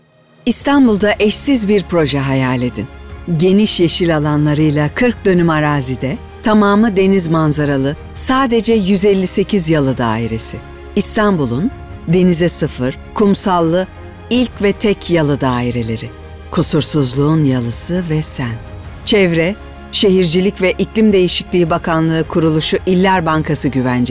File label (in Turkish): tanitim; seslendirme